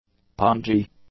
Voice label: "pongy", english; adjectives

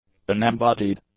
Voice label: "unembodied", English; adjectives

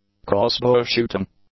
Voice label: "crossbow shooting", English; nouns

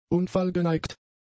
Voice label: "unfallgeneigt", German; adjectives

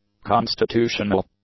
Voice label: "constitutional", English; adjectives

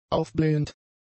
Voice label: "aufblähend", German; adjectives

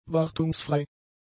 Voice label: "wartungsfrei", German; adjectives

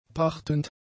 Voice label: "pachtend", German; adjectives

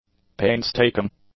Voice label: "painstaking", English; adjectives

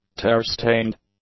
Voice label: "tear-stained", English; adjectives

